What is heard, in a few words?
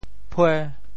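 "phue5", Teochew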